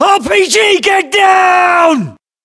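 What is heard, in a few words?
threat rpg, British; battlechatter; localized english; uk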